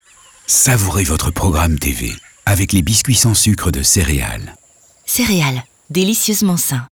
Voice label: tv cereal biscuit deep warm tasty

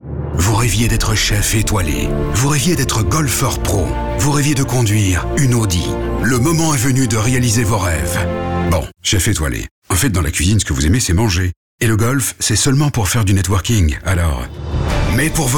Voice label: advert vo low acting epic; audi

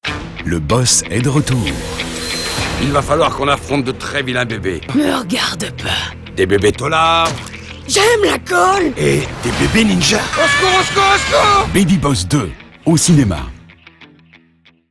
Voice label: movie trailer warm dynamic ironic; babyboss2

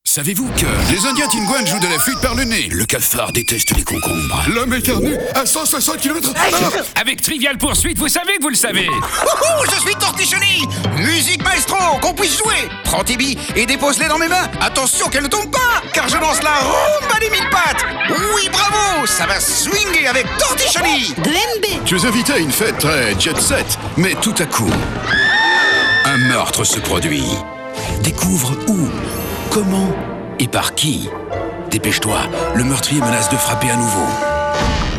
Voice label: advert, acting mix cartoon; hasbro

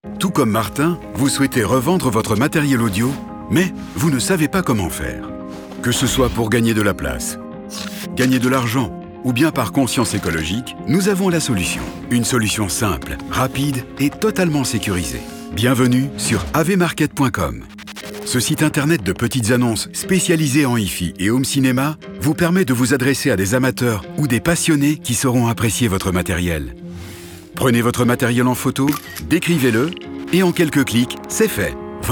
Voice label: vo medium warm; avmarket

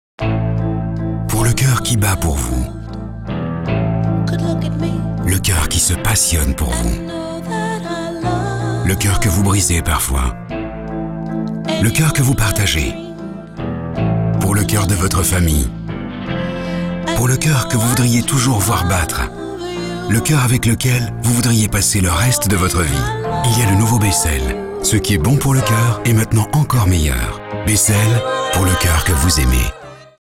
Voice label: tv vo warm soft accomplice; becel

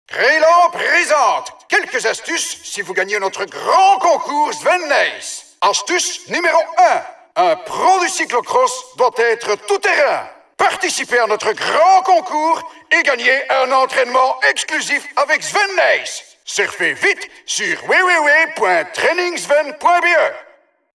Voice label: advert vo medium dynamique fairground entertainer; crelan

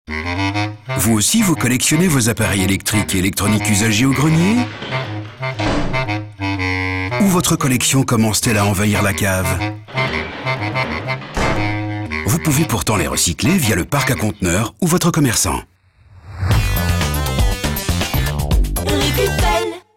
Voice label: tv vo light smily mischievous; recupel

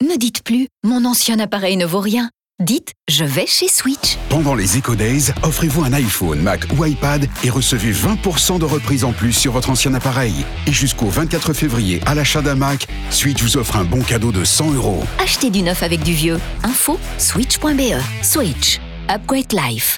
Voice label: advert vo medium low seller; switch

